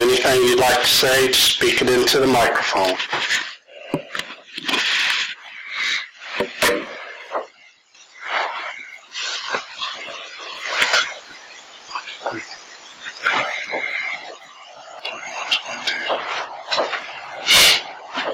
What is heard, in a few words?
evp deep voice at end filtered; The Old Place